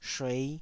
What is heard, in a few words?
"shuei5", taiwanese Syllables